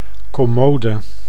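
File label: "commode", Dutch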